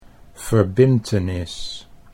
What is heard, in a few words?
"verbintenis", Dutch